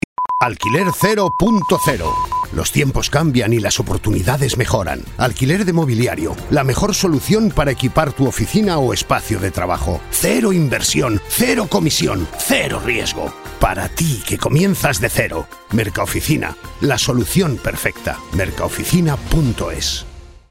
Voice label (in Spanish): Cuña Campaña Alquiler